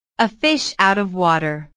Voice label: "fish water", inglés

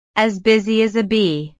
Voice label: "busy bee", inglés

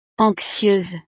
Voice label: "anxieuse", francés; estados animo

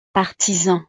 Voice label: "artisan", francés; profesiones

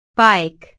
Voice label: "bike", inglés

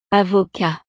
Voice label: "avocat", francés; profesiones

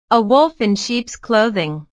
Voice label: "wolf sheep", inglés

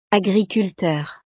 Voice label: "agriculteur", francés; profesiones

